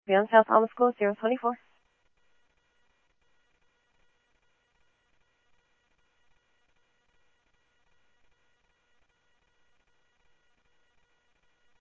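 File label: Mesa Police Department, scanner audio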